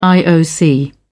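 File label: "ioc", British English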